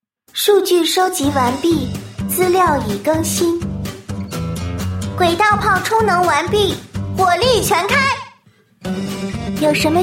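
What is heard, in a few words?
female other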